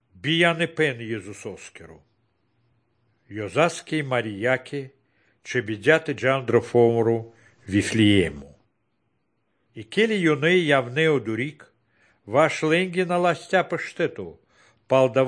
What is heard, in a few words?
good news baltic romani